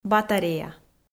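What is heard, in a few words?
"батарея", Komi, female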